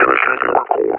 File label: retreat, combine officer vo; Half Life Alyx; Half Life Model Pack